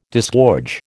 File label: "disgorge", American